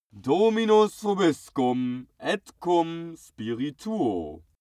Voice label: male pray latin